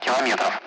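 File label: Speech, Russian; Allied Flight; DCS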